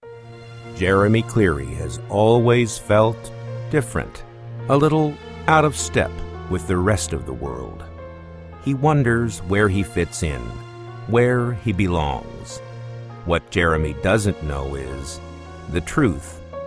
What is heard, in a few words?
Promo Demo